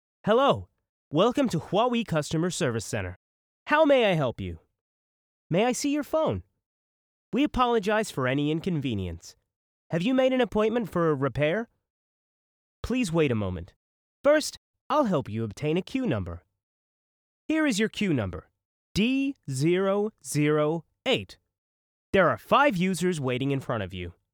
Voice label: IVR